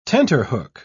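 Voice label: "tenterhook", British English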